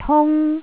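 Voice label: "tung1", Cantonese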